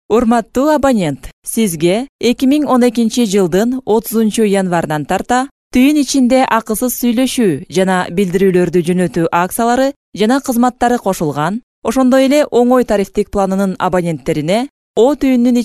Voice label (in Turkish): Kirgizca Kadin